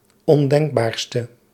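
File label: "ondenkbaarste", Dutch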